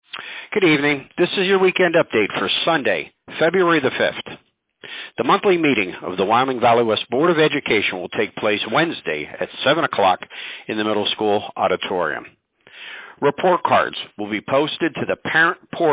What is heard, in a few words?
Robocall